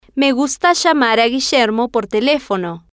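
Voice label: me gusta llamar a Guillermo por telefono, Spanish, female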